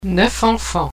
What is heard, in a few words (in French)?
"neuf enfants", phonetique